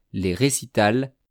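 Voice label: "les recitals", French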